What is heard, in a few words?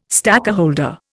"stakeholder", Indian